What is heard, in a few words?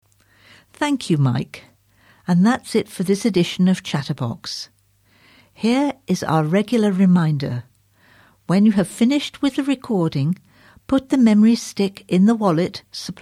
Closing Announcement